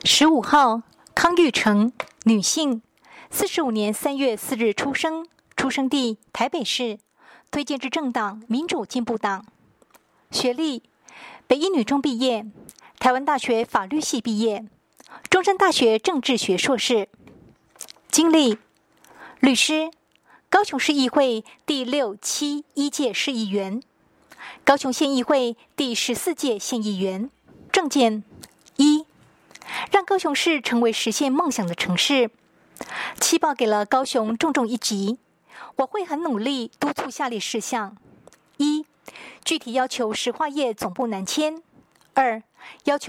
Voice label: 國語; 高雄市; 高雄市市長議員有聲選舉公報; 第七選區

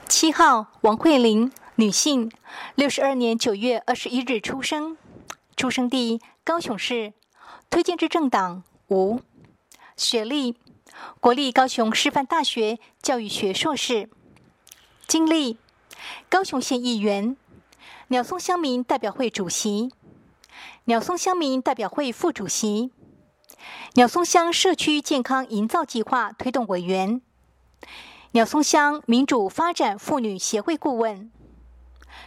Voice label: 國語; 第五選區

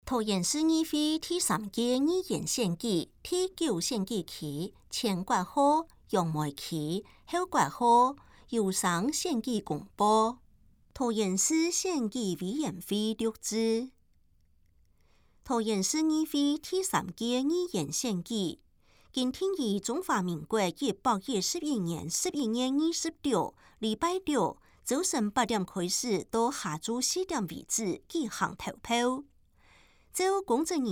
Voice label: 前言, 客語; 有聲公報; 市議員

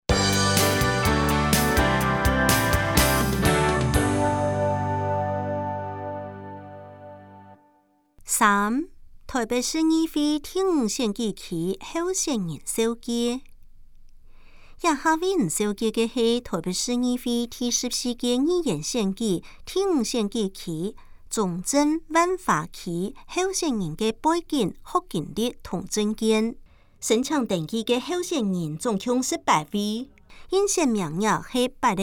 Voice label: 客語; 臺北市; 有聲公報; 市議員; 臺北市議會第14屆議員第5選舉區 (中正、萬華區)候選人介紹

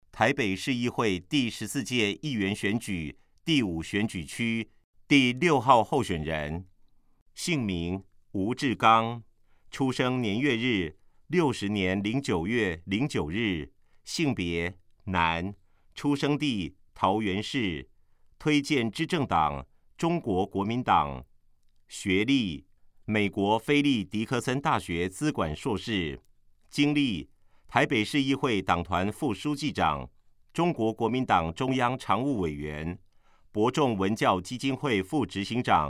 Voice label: 國語; 臺北市; 有聲公報; 市議員; 臺北市議會第14屆議員第5選舉區 (中正、萬華區)候選人介紹

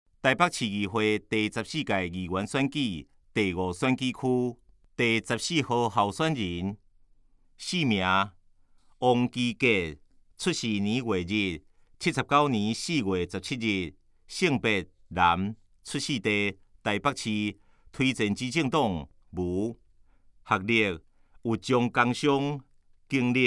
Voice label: 台語; 臺北市; 有聲公報; 市議員; 臺北市議會第14屆議員第5選舉區 (中正、萬華區)候選人介紹

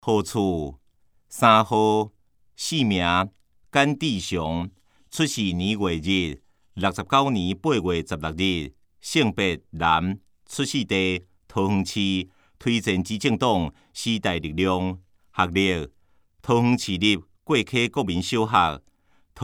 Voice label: 台語; 有聲公報; 市議員

